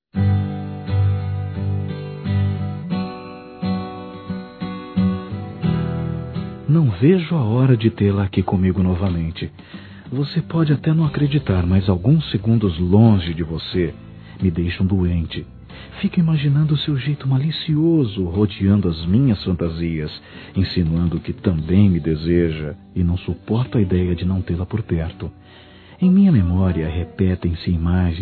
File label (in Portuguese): SAUDADE VIAGEM (M), Telemensagens Sensuais masc